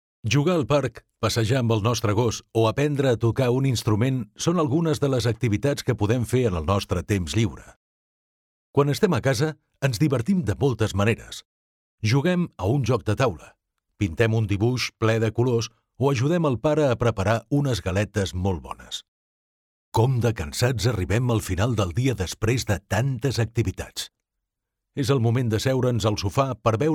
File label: male catalan voice over talent narrative